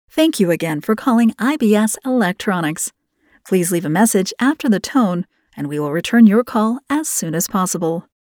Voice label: Voicemail